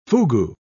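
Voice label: "fugu", British English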